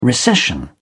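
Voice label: "recession", British English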